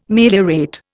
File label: "meliorate", Indian